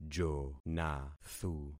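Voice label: "jonathu", concatenated syllables; Affixing experiment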